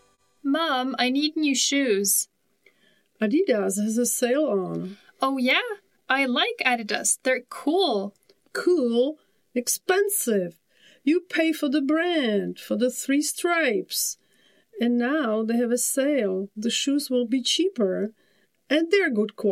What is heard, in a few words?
conversation